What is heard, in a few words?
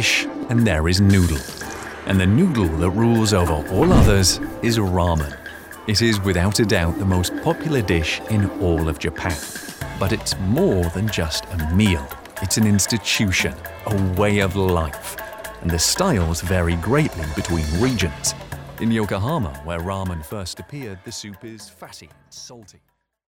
english british narration